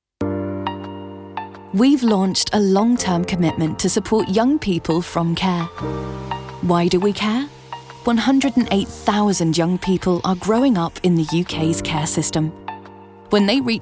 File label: female English corporate; john lewis; Devine Voices